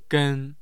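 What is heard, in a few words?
"gen", Mandarin Chinese, tone 1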